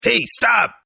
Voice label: Tough guy Stop English, Tough Hispanic Male Saying; Exclamation